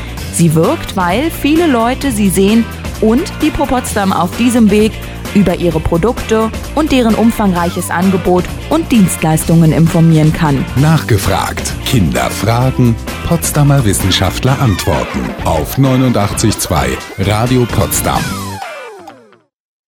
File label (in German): Werbung; Nachgefragt